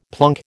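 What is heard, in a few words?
"plunk", American English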